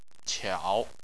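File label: "qiao", Chinese, tone 3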